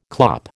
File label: "clop", American